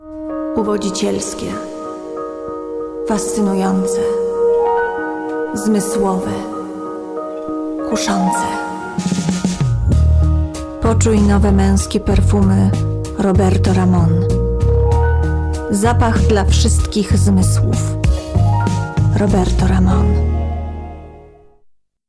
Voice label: POL Commercial